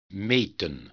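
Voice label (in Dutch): "meten", Dutch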